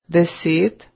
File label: Slovenian, ten